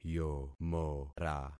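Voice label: "yomora", concatenated syllables; Affixing experiment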